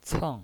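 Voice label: "ceng", Mandarin Chinese, fourth tone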